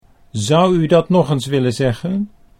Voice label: zou U dat nog eens, Dutch